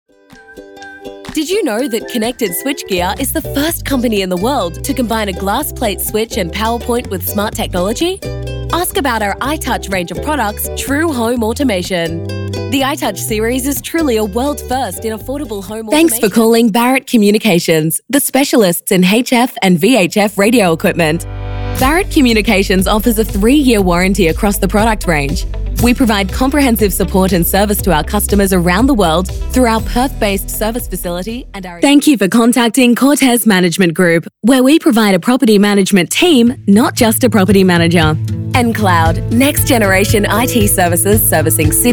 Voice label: AU phone